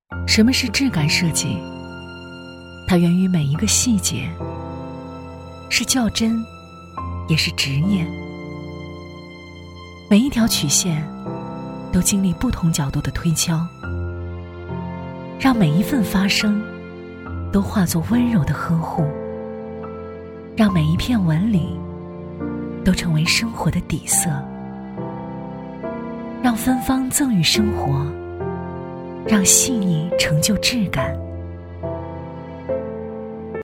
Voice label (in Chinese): 女 广告 自然广告 家具设计感; 西舞家具